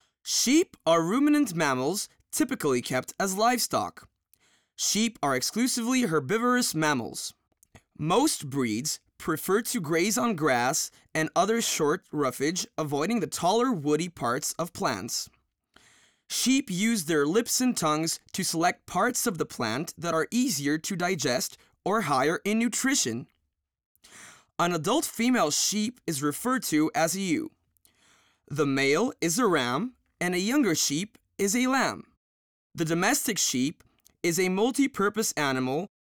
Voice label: english us m e-learning, voice demos